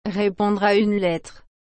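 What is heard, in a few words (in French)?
"repondre a une lettre", French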